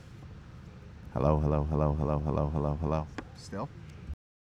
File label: Male Speech; NYCC 2013